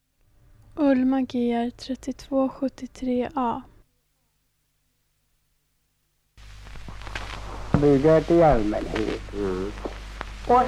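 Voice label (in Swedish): Gärdslösa Öland, dialekter, svenska sagor; Folkminnen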